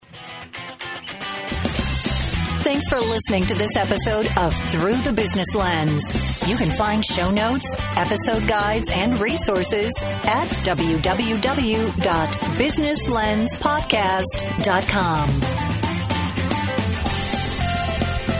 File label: Podcast Outro; Defining Success